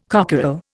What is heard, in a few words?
"cockerel", Indian